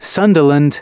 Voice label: announcements male

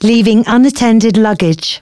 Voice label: female, announcements

